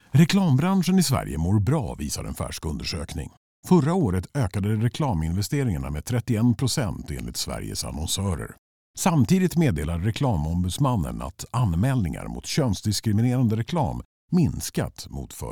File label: newsreader sv